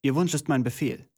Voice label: german voice; wyrmsun